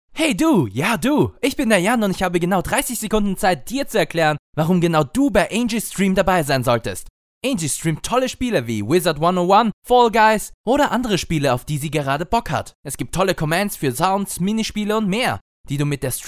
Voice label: German male